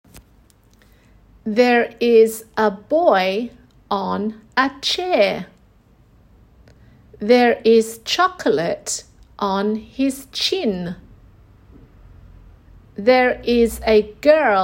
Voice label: ch chant, english phonics; sw phonics

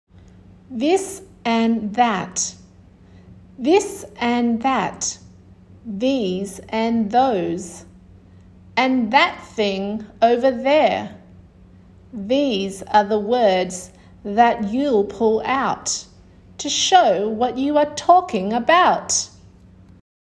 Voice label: voiced th; chant

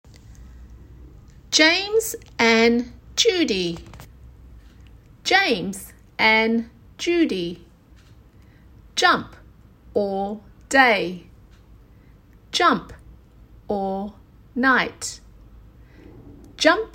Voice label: j chant, phonics; sw phonics

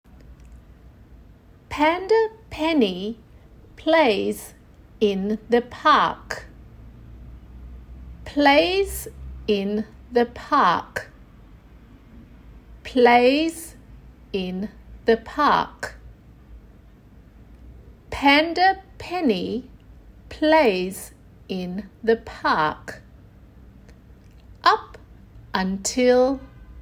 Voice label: p chant, english phonics; School-based Phonics Programme First Term

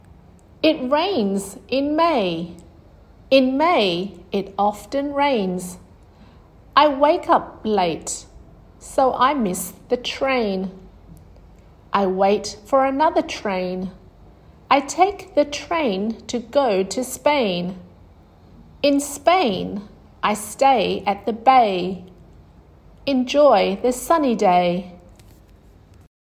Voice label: ay chant, english phonics

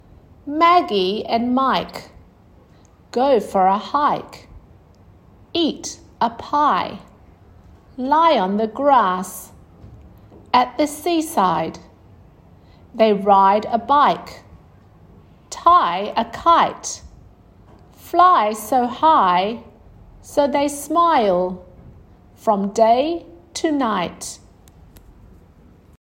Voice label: igh chant, english phonics

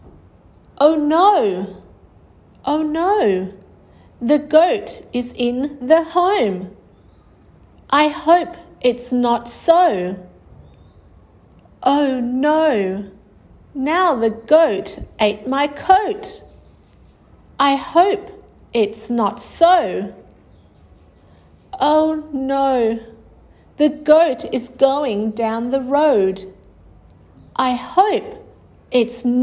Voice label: ow chant, english phonics; ( ow, o-e, oa ); P2 Phonics; School-based Phonics Programme First Term

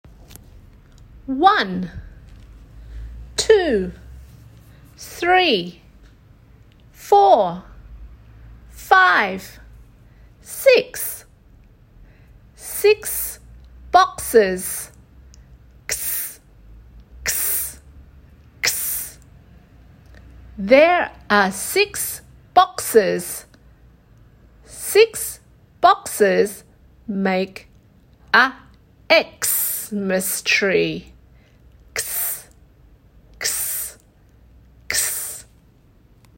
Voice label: x chant, english phonics; sw phonics

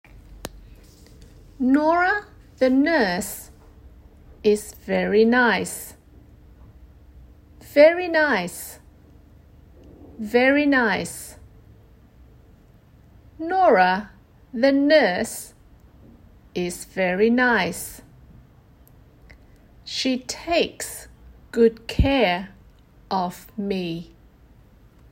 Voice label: n chant, english phonics; sw phonics

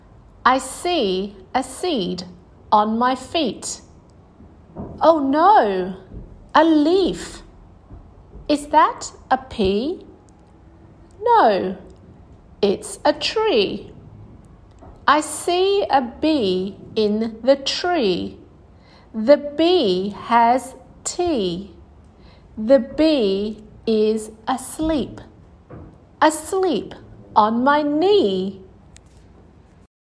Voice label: ee chant, english phonics

